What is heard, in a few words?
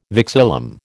"vexillum", American